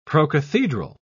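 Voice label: "procathedral", British English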